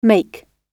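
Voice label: "make", British English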